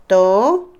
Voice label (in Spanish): suegra (de hombre), Otomí, mujer; Parentesco; INALI